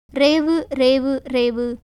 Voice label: Tamil